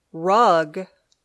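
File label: "rug", English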